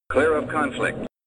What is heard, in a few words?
Male Clear of Conflict